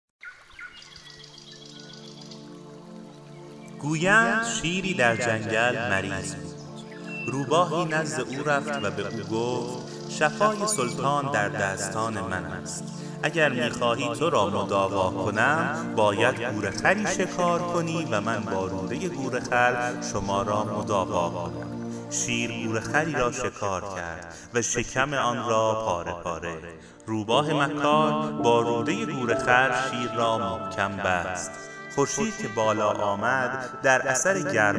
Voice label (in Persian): پادکست صوتی داستان شیر, پادکست صوتی پند آموز داستان شیر; پادکست پند آموز از جفت هیچ داستان شیر